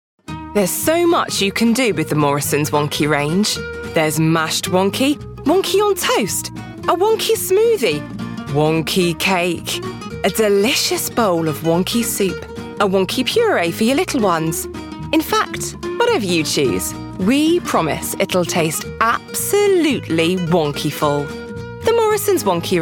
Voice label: Commercials; regional accents